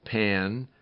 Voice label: "pan", Minimal Pairs; Rhymes an